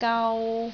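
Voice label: "gau3", Cantonese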